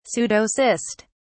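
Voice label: "pseudocyst", British English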